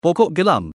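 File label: "pokok gelam" Malay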